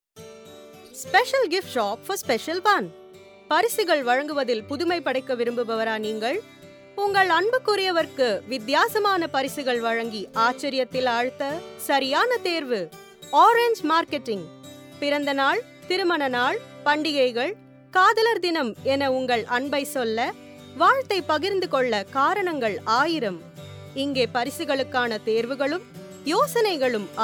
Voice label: Advert Tamil